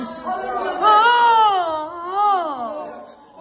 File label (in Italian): esclamazioni arabe